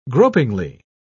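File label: "gropingly", British English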